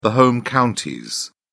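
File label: "home counties", British English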